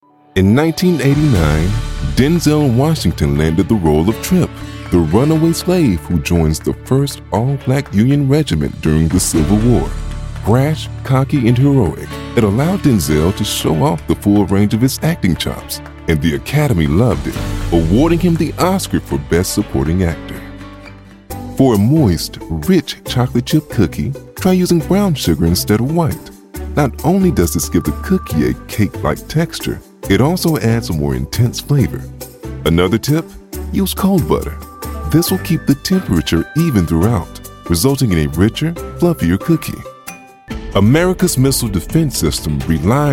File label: NARRATION VO; DEMO REEL